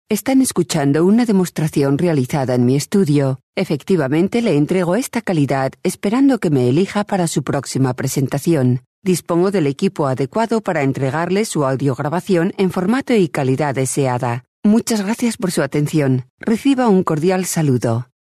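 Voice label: Spanish, female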